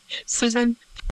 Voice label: Voice Acting, es, M